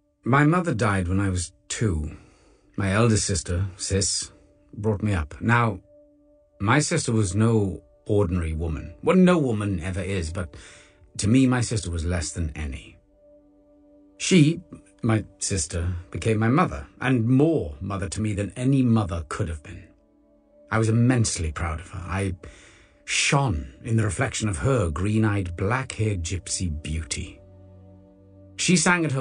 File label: AUDIOBOOK; PLAYING BURTON